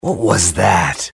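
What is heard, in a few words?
"spy", male; tf; max payne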